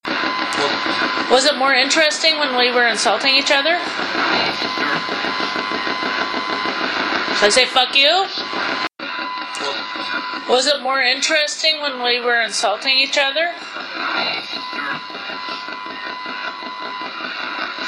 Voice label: radio voice insulting each other